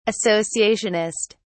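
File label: "associationist", British English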